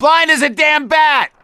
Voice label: misc directional, voice; gambler

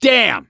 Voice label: reaction negative, voice; gambler

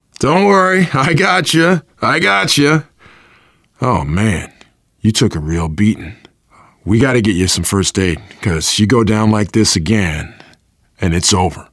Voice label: revive critical friend, voice, biker

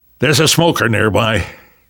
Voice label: heard smoker, survivor voice; Left 4 Dead 2; left4dead2 dlc3

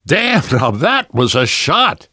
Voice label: nice shot, namvet, survivor voice; Left 4 Dead 2; left4dead2 dlc2

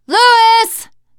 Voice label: name louis, teengirl, voice

